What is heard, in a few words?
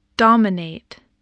"dominate", spelling